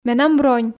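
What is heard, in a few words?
Komi, female; менам бронь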